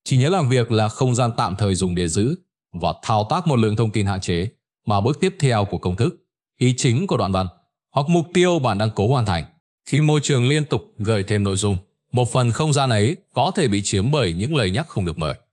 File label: narration